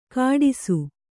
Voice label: "ಕಾಡಿಸು", Kannada

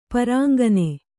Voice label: "ಪುರಾಂಗನೆ", Kannada

